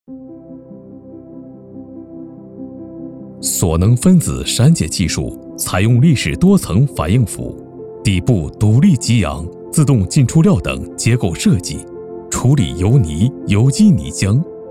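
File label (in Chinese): 宣传片环保; 索能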